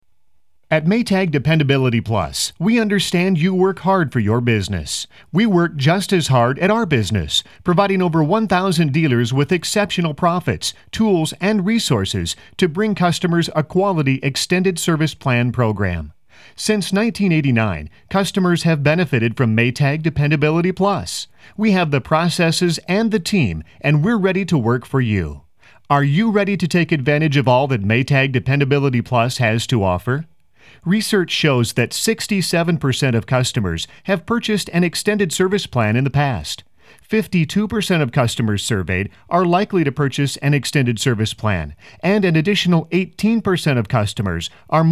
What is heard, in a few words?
Narration